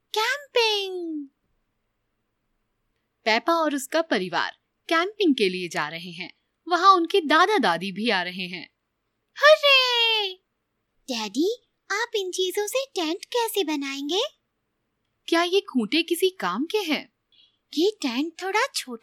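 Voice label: animation sample hindi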